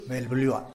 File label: "belbliua" type of snake; animals